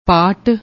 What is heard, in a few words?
"paat", Punjabi